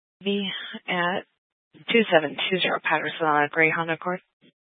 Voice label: Police Department Dispatch; Grand Junction

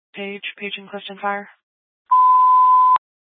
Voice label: Fire Department Dispatch, scanner; Grand Junction Fire Department Dispatch

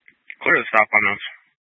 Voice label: Police Department Dispatch, scanner

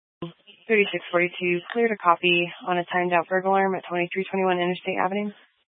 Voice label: Police Dispatch, scanner; Police 1 Dispatch

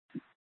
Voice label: Incident Tac 3, scanner

